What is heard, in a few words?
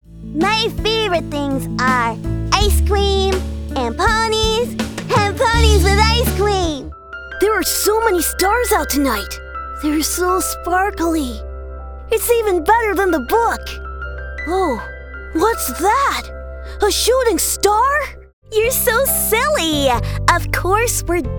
Kids and Teens